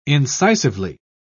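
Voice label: "incisively", British English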